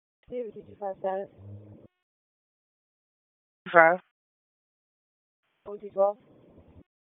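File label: Police District Dispatch, p25; Raleigh Police North District Disp; wake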